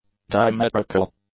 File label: "diametrical", english; adjectives